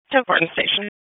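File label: EMS Dispatch; wake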